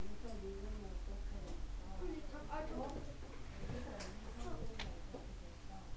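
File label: "gentle", spoken word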